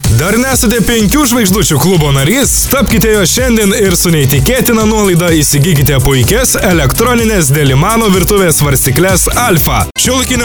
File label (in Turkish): Litvanca Erkek, seslendirme